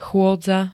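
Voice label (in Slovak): "chôdza", Slovak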